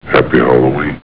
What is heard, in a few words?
happy hal; Halloween